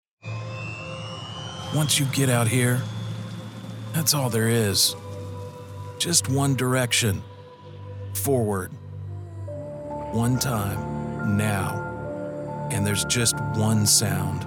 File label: Commercial